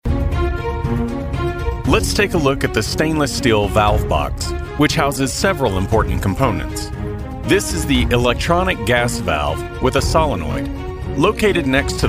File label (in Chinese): 外籍男英 外语 美式英语 大气浑厚宣传 浑厚